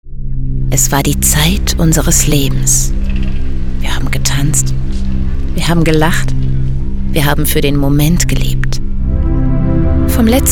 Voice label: werbung; stadtleben

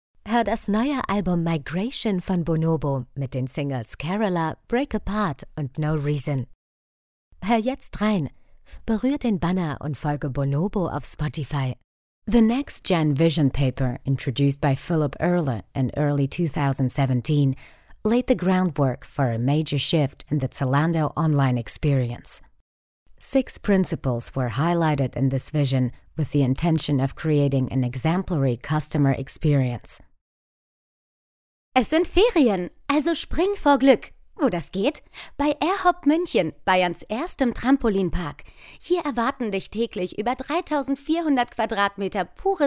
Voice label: homestudio soundcheck

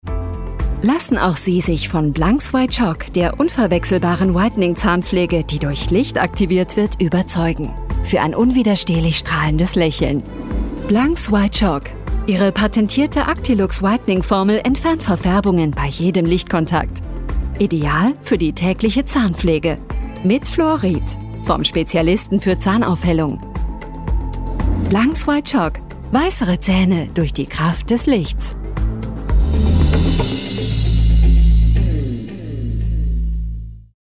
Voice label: webspot zahncreme kompetent warm; blanx

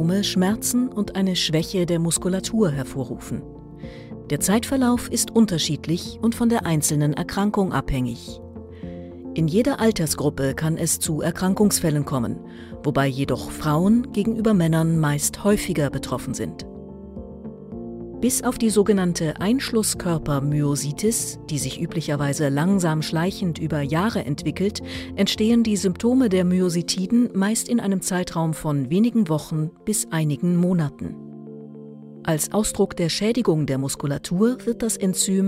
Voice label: erklaerfilm myositis; dt. ges. fuer muskelkranke; Muttersprache Deutsch

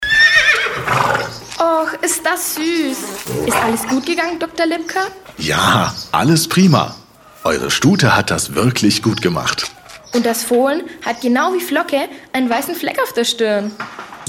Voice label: kinder

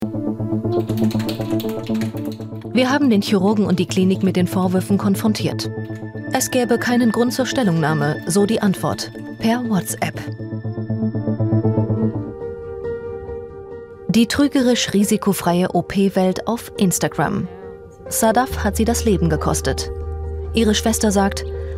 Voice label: doku schoenheits-ops tuerkei; wdr

